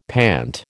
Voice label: "pant", American